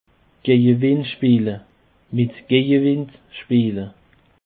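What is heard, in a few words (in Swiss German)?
"gejewindspeele", Alsatian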